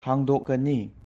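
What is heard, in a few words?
"Hangdokkani" Manipuri; Will open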